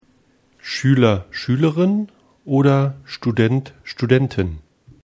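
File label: "Schueler", German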